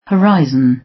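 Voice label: "horizon", British; Space, the planets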